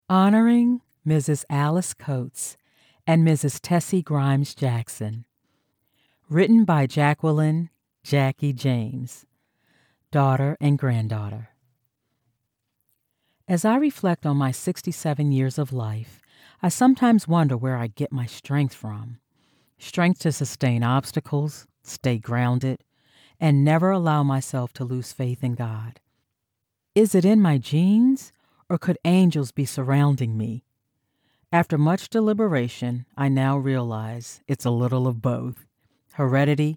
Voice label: Audiobook